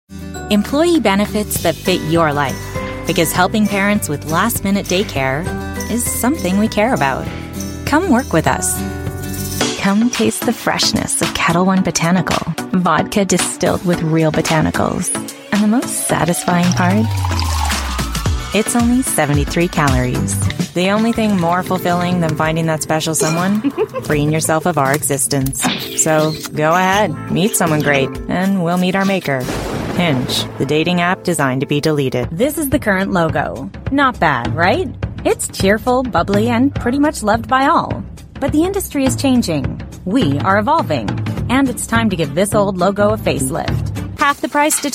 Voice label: American voice commercial, Youthful North American VoiceOver